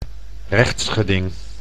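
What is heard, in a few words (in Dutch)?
"rechtsgeding", Dutch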